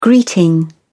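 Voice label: "greeting", British English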